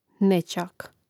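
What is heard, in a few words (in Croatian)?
"nećak", Hrvatski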